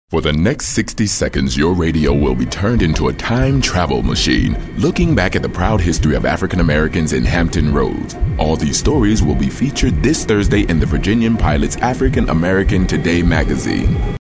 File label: announcer